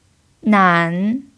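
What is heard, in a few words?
"nan" third tone, chinese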